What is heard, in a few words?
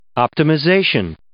"optimization", English